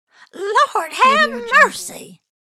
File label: elderly female saying lord have mercy